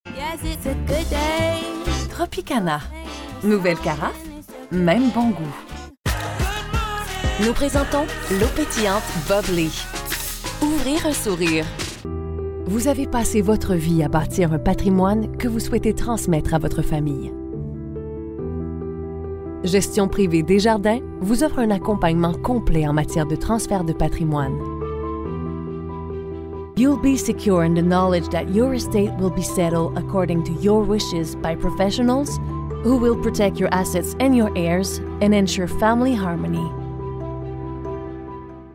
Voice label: french canadian commercial; Kanada